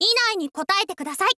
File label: info girl "inaini"